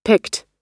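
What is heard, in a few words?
"picked", American English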